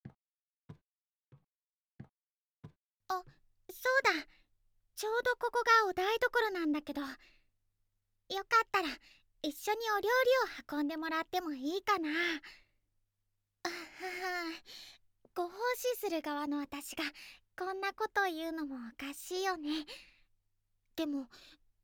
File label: Voice, ASMR; わたし、いいお嫁さんになれそうかな?